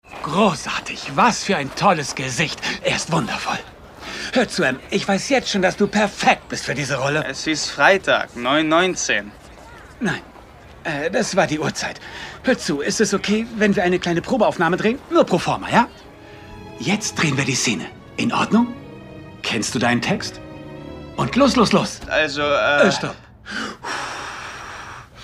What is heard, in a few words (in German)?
SYNCHRON